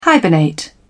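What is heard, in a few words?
"hibernate", English, British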